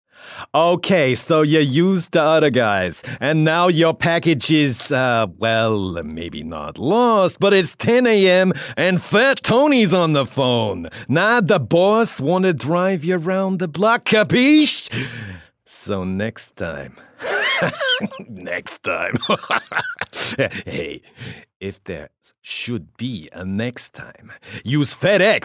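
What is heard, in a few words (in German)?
American English Dynamisch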